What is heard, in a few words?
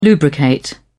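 "lubricate", British English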